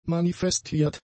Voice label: "manifestiert", German; adjectives